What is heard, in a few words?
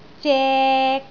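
"zek3", Cantonese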